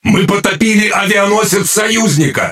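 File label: Russian Speech Destroy Ally Aircarrier; World Of Warships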